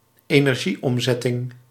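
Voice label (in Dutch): "energieomzetting", Dutch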